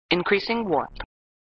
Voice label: Voice Computer "Increasing Warp"; flipper; sttng